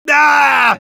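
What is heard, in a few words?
Heavy negative vocalization, Spanish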